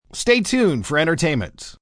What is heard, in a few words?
Entertainment M, Broadcast, announcer, breakaway, male